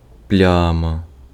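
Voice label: Ukrainian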